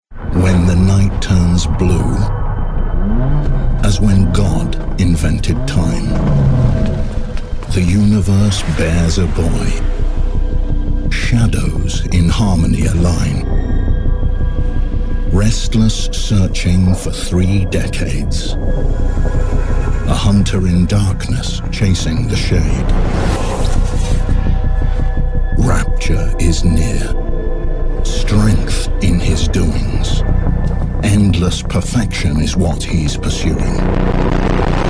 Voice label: Geheimnisvoll; EngUK

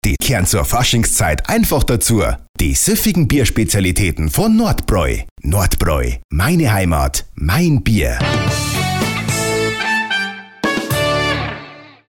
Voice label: Dialekt, Bayerisch

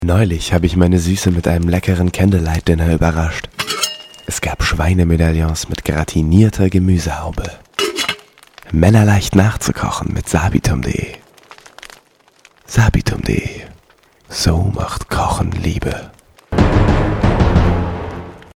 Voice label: Sanft

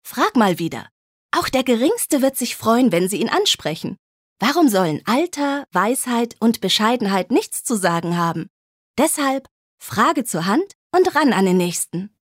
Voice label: Werbung Freundlich

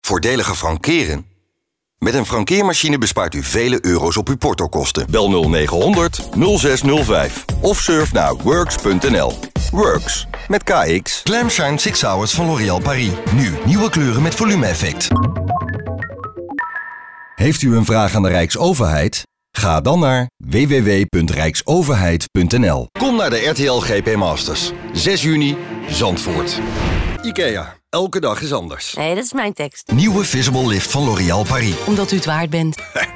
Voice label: Werbung Lebendig

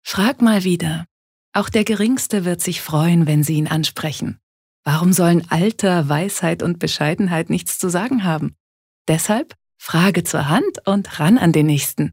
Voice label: Werbung Sanft

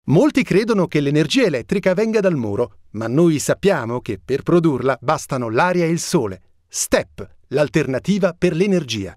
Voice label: Kommentar Dynamisch

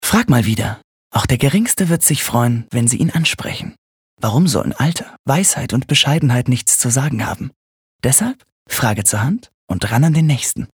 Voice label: Werbung Sanft

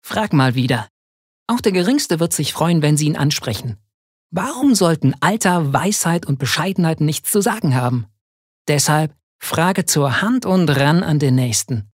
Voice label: Werbung Cool

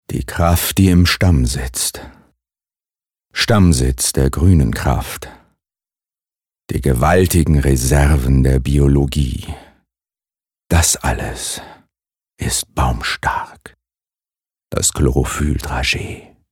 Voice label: Werbung Sanft

